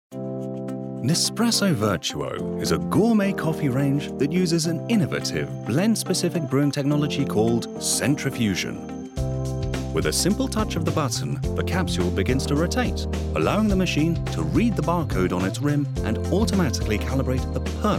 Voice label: Corporate